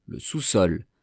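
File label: "le sous sol", French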